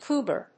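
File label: "cougar", English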